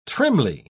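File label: "trimly", British English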